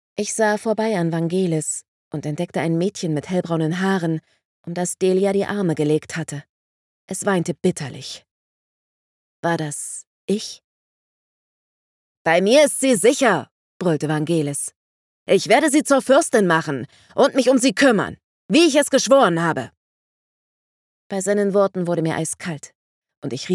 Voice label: Fantasy, Sprecherin

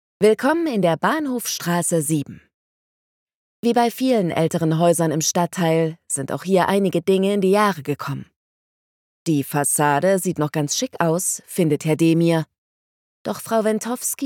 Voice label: Erklaervideo energetische Sanierung, Sprecherin

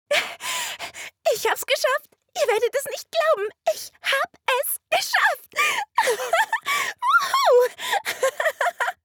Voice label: Anime freudig jauchzend

